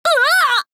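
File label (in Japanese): 「うわぁ！」, 男の子 王道系少年主人公 戦闘ボイス ダメージ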